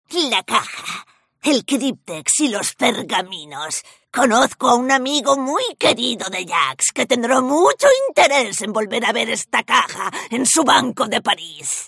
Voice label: FEMALE, videogames